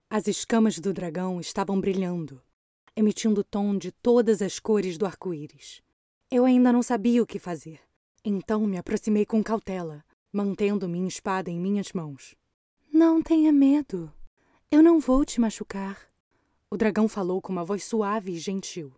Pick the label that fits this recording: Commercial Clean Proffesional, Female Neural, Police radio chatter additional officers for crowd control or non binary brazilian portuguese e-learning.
non binary brazilian portuguese e-learning